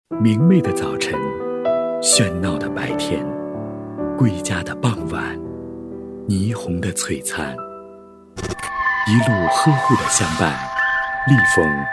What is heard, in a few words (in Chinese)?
旁白; 车管家